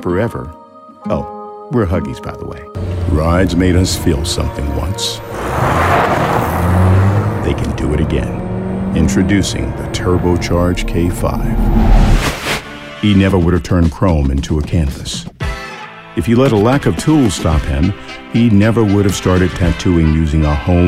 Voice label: Commercial, Voice Over